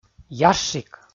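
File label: "ящик", Komi, male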